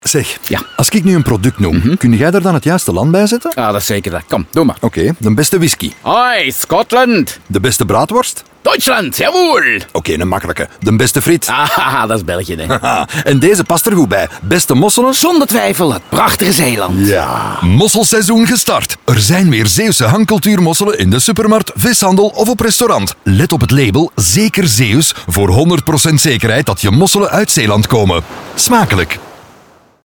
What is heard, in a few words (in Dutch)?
Radio; hangcultuurmosselen van 2024 zijn er weer